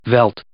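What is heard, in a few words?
"veld", English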